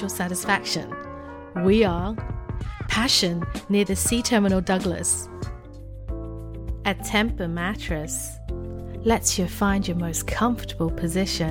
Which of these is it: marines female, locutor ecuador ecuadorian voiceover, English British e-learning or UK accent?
UK accent